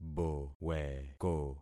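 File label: "boweko", concatenated syllables; Affixing experiment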